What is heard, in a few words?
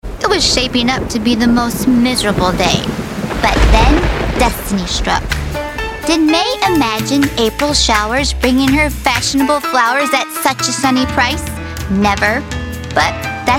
Comercial; Marshalls